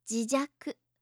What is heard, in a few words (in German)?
"jijaku", japanische